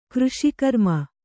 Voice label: "ಕೃಷಿಕರ್ಮ", Kannada

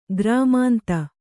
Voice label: "ಗ್ರಾಮಾಂತ", Kannada

